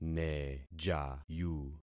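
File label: "nejayu", concatenated syllables; Affixing experiment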